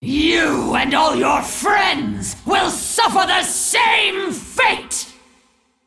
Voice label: Female Dragon Undead; Undead Onyxia